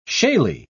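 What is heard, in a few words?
"shaly", British English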